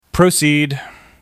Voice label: Male Proceed

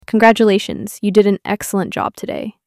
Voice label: Female Congratulations

